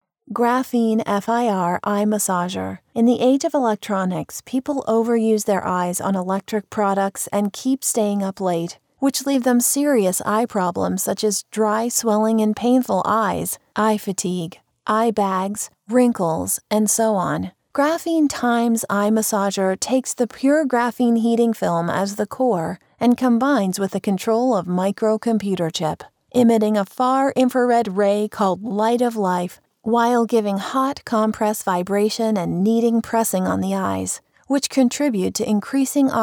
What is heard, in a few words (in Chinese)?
外籍 英 女, 眼部按摩仪广告